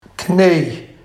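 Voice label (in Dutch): "kni-j", Barghs dialect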